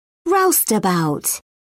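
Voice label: "roustabout", British English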